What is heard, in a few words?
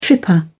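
"tripper", British English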